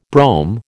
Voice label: "brome", American

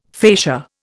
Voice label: "facia", Indian

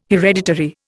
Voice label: "hereditary", Indian

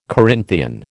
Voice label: "Corinthian", American

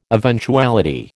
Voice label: "eventuality", American

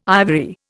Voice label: "ivory", Indian

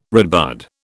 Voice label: "redbud", British

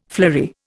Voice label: "flory", Indian

